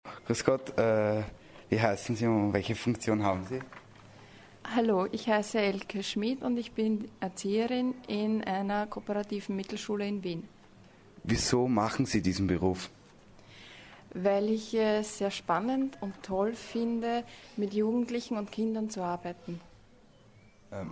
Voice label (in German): Interview